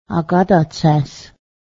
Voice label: i got tired, Cayuga